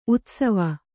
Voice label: "ಉತ್ಸವ", Kannada

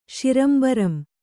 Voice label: "ಶಿರಂಬರಂ", Kannada

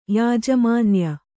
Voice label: "ಯಾಜಮಾನ್ಯ", kannada

